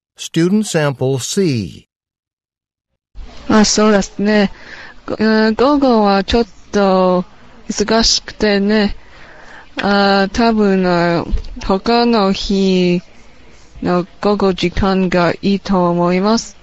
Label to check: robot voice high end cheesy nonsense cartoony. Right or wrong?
Wrong